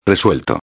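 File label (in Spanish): "resuelto", Spanish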